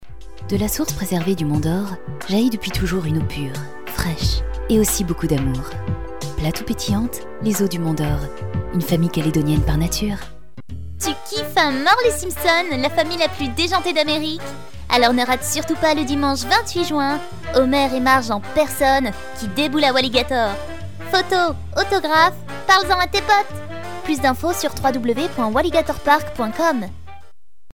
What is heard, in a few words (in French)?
Spots publicitaires